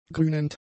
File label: "grünend", German; adjectives